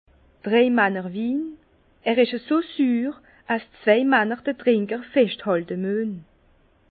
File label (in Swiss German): "dreimannerwin", Bas Rhin; vin; vin à trois hommes (il est tellement acide qu'il faut deux hommes pout tenir le buveur - i